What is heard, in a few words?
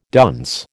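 "dunce", American English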